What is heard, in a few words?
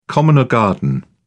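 "common or garden", British English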